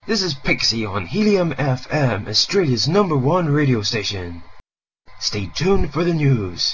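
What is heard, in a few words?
Helium, voice clips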